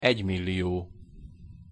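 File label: "egymillio", Hungarian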